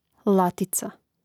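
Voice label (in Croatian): "latica" Croatian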